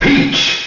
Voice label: announcer; peach; ssbm